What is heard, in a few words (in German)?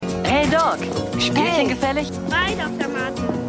Junge Mit Basketball Kleiner Junge